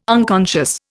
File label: "unconscious", Indian